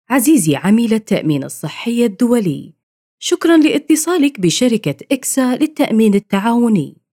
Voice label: Arabic Recording